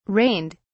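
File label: "rained", British English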